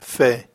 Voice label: "feh", hebrew